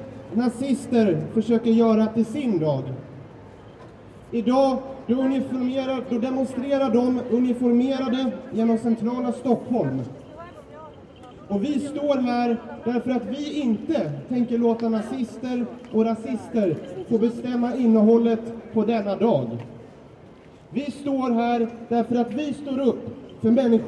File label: City Swedish Speech National Day, Swedish speech on the national day of Sweden.; Official person speaking anti-racist message through PA with light crowd